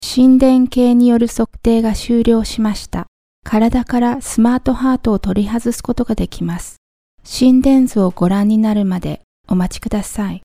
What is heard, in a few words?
Japanese; קריינות